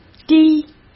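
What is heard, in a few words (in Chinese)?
"低", Mandarin Chinese; hsk; chinese-flashcards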